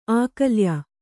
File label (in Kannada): "ಅಕಲಾಯ", Kannada